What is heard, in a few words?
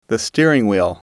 "steering Wheel", English